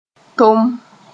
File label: "tom", Mooré